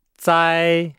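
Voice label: "zai", Chinese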